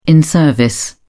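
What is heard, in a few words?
"in service", British English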